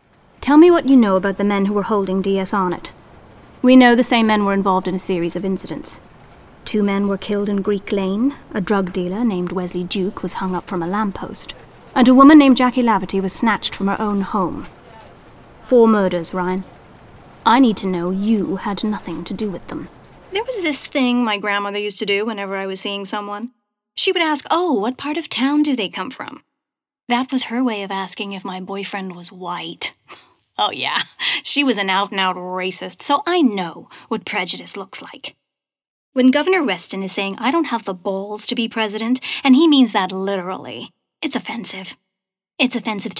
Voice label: Drama Reel